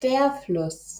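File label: "Fluss", German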